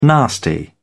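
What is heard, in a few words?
"nasty", British English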